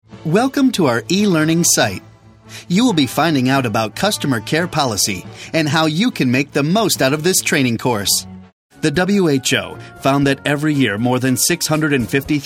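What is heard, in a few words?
American English, narration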